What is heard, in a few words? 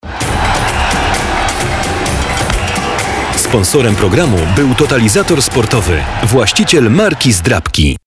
Male VO Commercial